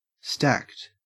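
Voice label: "stacked", Australian English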